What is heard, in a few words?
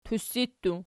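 "tussi" plural, Archi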